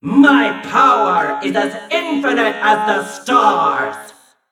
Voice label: Nonbinary Mech

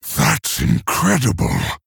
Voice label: Male Orc Wow; Thrall

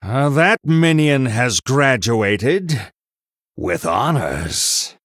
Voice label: Male Human After Triple

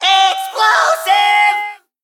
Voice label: Male Mech Attack

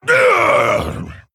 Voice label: Male Orc Death

